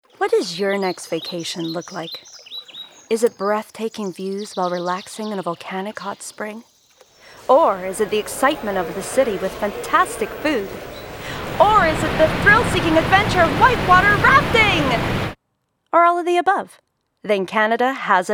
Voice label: Advert; Maple Leaf Travel

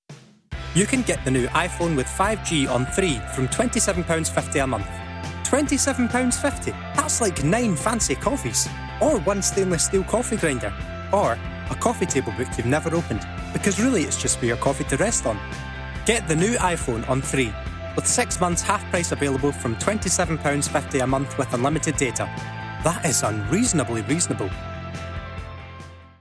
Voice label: Commercial; Three Mobile

